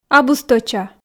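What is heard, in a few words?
"абу стӧча", Komi, female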